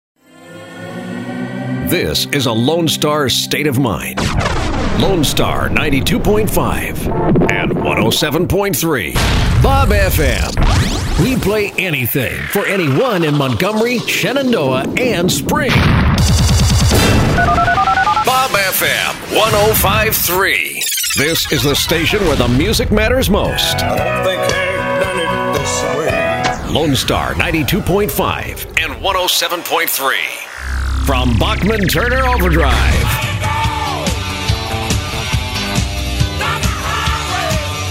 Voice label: Radio Imaging